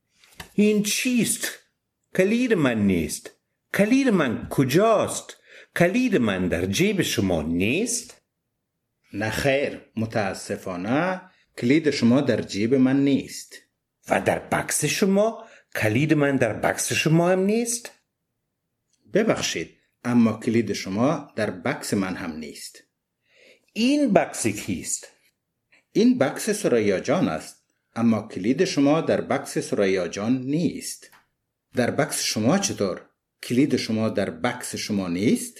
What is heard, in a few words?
Dialog, Dari Persisch